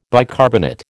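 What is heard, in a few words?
"bicarbonate", American English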